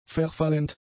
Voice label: "verfallend", German; adjectives

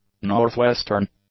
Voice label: "northwestern", english; adjectives

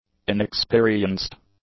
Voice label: "inexperienced", English; adjectives

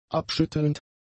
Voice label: "abschüttelnd", German; adjectives

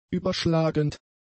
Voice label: "überschlagend", German; adjectives

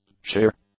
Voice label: "sheer", English; adjectives

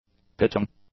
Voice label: "pitting", english; adjectives

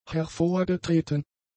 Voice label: "hervorgetreten", German; adjectives

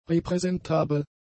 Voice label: "repräsentabel", German; adjectives

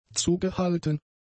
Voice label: "zugehalten", German; adjectives

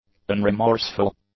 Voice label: "unremorseful", english; adjectives

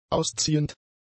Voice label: "ausziehend", German; adjectives

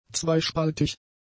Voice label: "zweispaltig", German; adjectives

